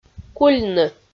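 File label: "кульны", Komi, female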